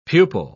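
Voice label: "pupal", British English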